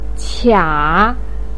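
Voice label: "qia3", Mandarin Chinese, pinyin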